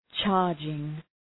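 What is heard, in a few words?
"charging", English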